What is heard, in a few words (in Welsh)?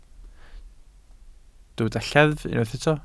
"lleddf", Welsh